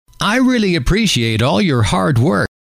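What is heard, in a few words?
i really appreciate all your hard work, male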